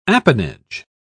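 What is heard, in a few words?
"apanage", British English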